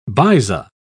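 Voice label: "baiza", British English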